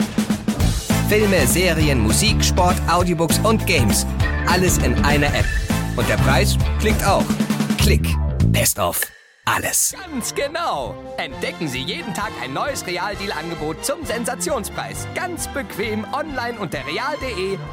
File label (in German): Werbung Voicereel